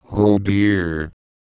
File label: Oh dear, Microsoft Sam, speech